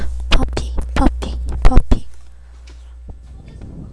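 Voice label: "puppy", spoken word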